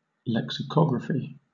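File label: "lexicography", British English; pronunciations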